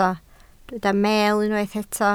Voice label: "meel", Welsh